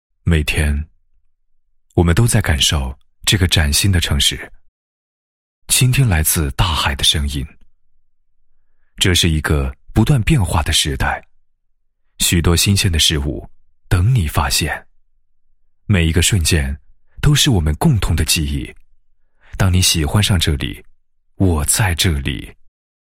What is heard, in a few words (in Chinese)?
男 诗歌; 从心开始